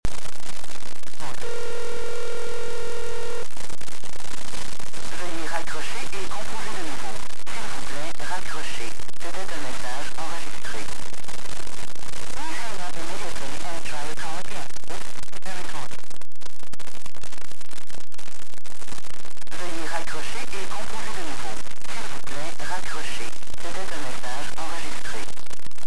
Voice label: bell quebec please hang up; payphone